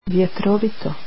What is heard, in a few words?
"windy", Croatian